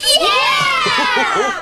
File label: "yeah" kids; ooh ahh